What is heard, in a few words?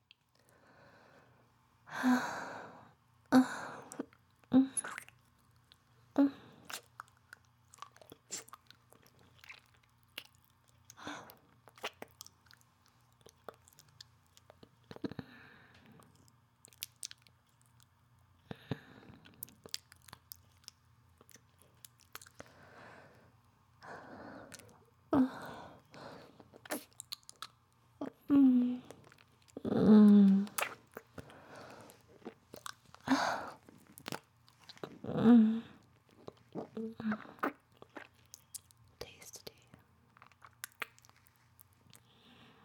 asmr yandere